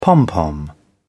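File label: "pompom", British English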